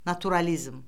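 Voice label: "naturalizm", Azerbaijani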